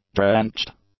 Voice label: "drenched", English; adjectives